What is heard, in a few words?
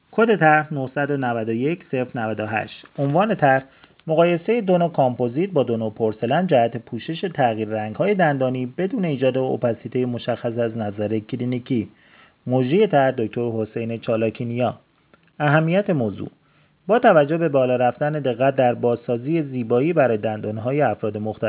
voice recorder; tarjoman